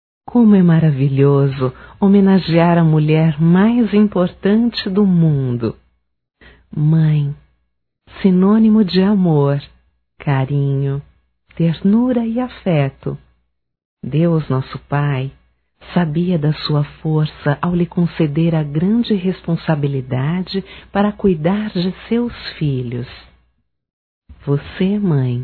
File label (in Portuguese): Homenagem Feminino; Dia das Mães; Telemensagens 2017